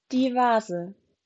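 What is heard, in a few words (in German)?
"Vase", German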